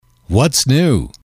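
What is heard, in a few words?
"what's new", English, male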